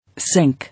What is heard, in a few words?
"Sink", English